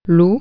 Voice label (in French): "wolf", French